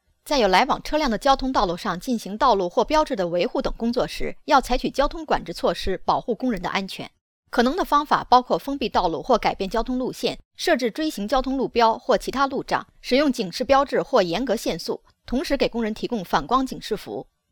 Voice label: Chinese Mandarin; foreign languages